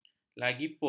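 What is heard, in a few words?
"lagipun", Malay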